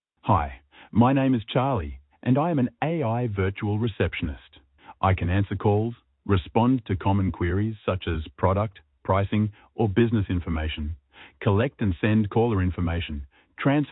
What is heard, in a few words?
AI Receptionist Recording; choosing between ai phone answering or live receptionists